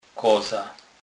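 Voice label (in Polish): "koza", Kurpie dialect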